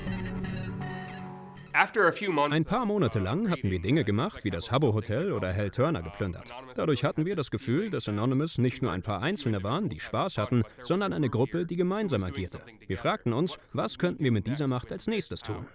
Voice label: Voice Over

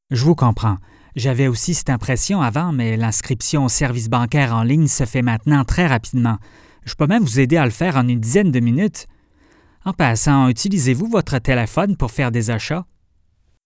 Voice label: Podcast; HSBC

